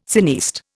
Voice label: "cineaste", Indian